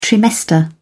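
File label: "trimester", British English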